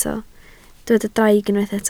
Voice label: "draig", Welsh